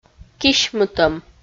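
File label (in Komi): "кисьмытӧм", Komi, female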